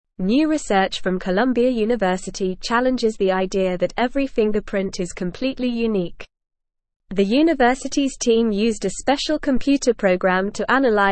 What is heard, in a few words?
English Upper Intermediate NORMAL Reading; English Newsroom; AI Tool Challenges Belief in Unique Fingerprints